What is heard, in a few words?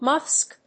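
"MFSK", English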